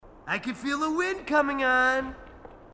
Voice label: marine tough

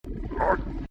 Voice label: taunt agressive

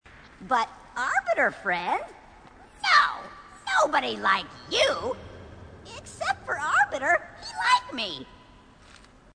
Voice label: grunt; h2dialogue; grunt crazy

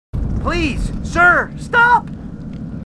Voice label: marines, marine timid; h2dialogue

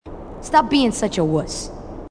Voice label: marine tough

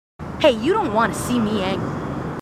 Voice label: marines female, marine tough

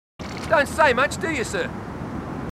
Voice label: marines, aussie; h2dialogue

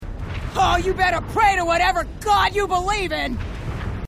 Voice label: female marine

